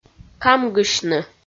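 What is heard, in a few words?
"камгысьны" Komi, female; kpv audio dict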